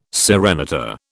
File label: "serenata", British